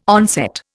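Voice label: "onset", Indian English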